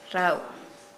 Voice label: Nukuoro; hundred; Nukuoro Talking Dictionary

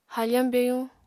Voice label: "hafañ byayuñ", apatani

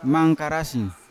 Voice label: "mangkarasi", Yawa; unat

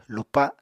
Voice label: "lupa", Apatani

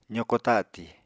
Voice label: "zoko tatì", Apatani

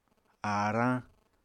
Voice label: "aarañ", apatani

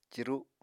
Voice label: "ciru", Apatani; Household Items

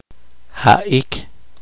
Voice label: poqomchi; derritido

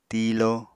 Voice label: "tiilo", apatani

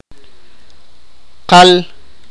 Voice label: sipakapense; not

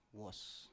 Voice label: "wos", Biak

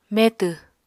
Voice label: "metw", Apatani

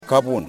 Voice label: Frog, yimas

